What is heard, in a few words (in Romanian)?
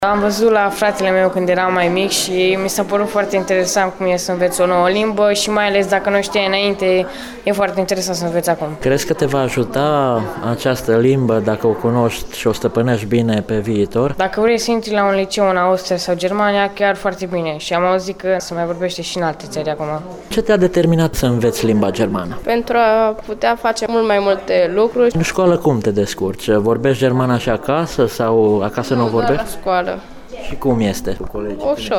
vox elevi; germana